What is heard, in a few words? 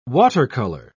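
"water-color", British English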